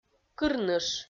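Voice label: "кырныш", Komi-Zyrian, female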